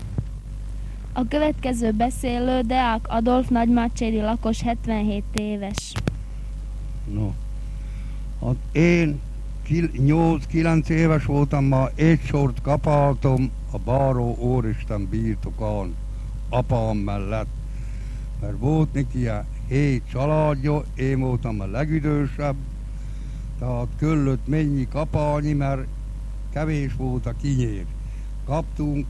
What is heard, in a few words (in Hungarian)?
nyelvjaras gyujtesek